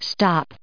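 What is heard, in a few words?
"stop", US English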